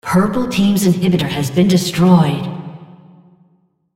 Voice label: On Dampener Die purple, female